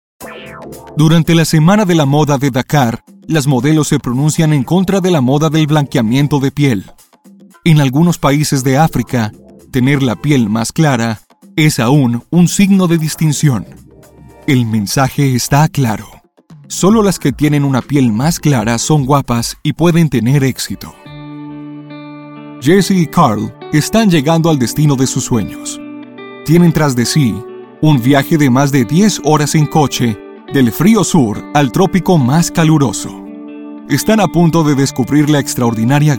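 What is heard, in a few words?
Latin American Spanish documentary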